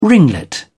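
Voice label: "ringlet", British English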